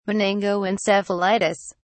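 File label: "meningoencephalitis", British English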